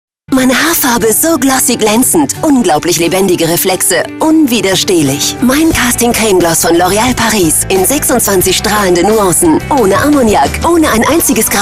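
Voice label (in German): off, sprecher; LOFT VOICES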